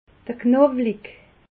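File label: "knowli", Alsatian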